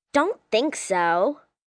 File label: Dont Think So, Attitude, 1 girl, 9 yrs